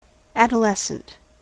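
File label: "adolescent", American English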